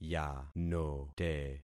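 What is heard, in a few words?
"yanote", concatenated syllables; Affixing experiment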